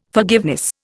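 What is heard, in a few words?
"forgiveness", Indian English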